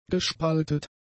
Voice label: "gespaltet", German; adjectives